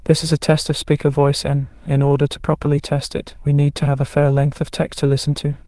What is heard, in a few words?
speaker en